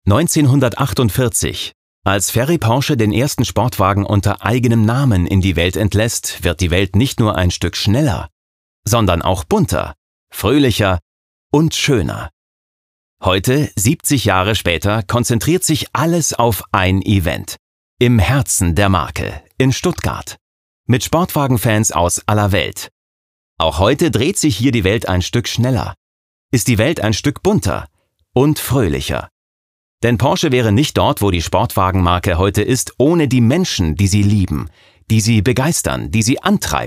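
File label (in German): commentary, Dynamisch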